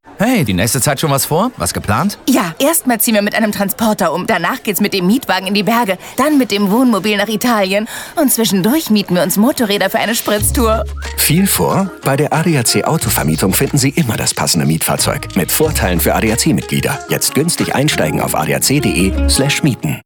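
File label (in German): Autovermietung, Spots, Männer; ADAC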